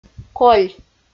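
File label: "коль" Komi, female; audio dict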